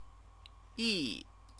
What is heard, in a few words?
"yi", Chinese; CTCFL; University of Oxford